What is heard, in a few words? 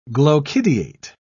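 "glochidiate", English, British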